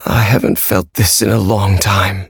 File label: level up, en; Cookie Run